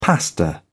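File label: "pasta", British English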